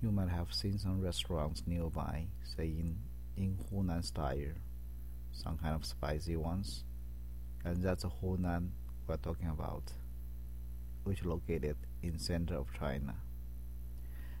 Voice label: Intro Changsha Dialect